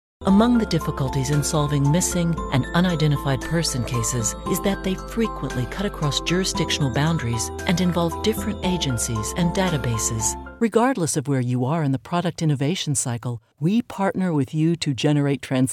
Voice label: english us and canada female corporate